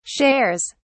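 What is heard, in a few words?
"shares", British English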